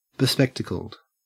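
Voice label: "bespectacled", Australian English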